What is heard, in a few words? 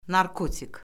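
"narkotik", Azerbaijani; Orfoqrafiya Lüğəti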